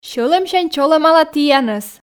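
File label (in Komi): сьӧлӧмсянь чолӧмала тіянӧс, Komi, female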